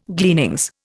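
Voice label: "glebe", Indian; Dictionary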